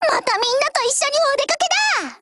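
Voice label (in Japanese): operation begin, Japanese; MP446Mod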